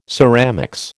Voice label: "ceramics", American

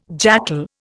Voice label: "jackal", Indian

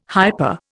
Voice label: "hyper", Indian

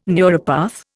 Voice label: "neuropath", Indian

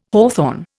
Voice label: "hawthorn", Indian

